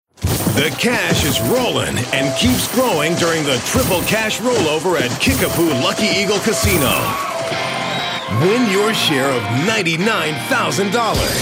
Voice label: High Energy